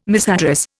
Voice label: "misaddress", Indian